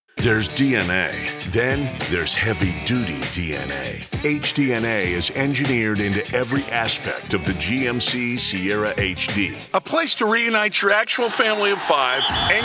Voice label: Voice Talent Commercial